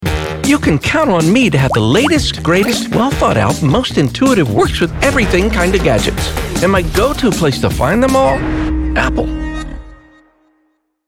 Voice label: Tech Commercial